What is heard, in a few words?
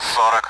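Speech Sound Russian Common AWACS Numbers; DCS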